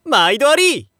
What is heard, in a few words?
shop youngman maido